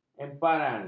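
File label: "emparan", Malay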